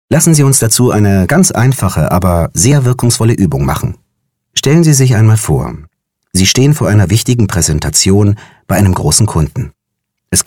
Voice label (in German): elearning ruhig entspannt erklaerend uebung; break your limits